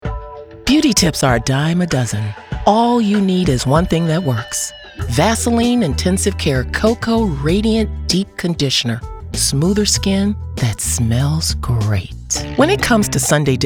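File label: FEMALE VOICE American English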